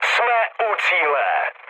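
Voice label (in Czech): Czech near waypoint, mood medium; War Thunder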